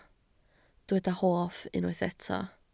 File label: "hoff", Welsh